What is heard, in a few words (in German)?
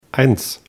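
"eins", German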